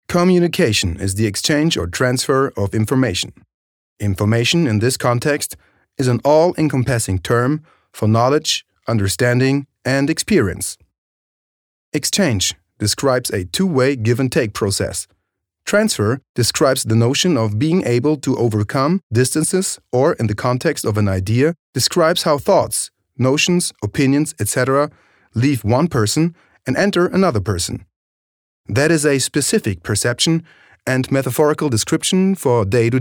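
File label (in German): English US Freundlich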